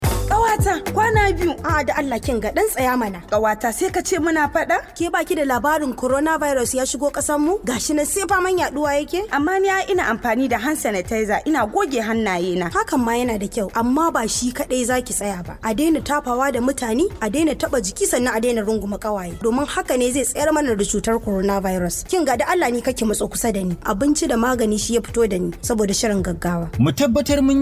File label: Hausa; Supermarket